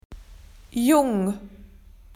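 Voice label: "young", Australian English; PictureDictionary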